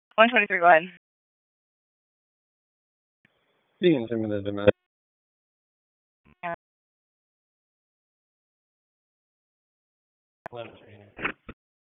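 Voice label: Police Southwest District Dispatch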